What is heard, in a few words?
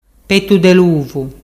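"pettu de luvu", Ligurian dialect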